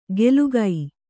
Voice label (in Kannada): "ಗೆಲುಗೈ", Kannada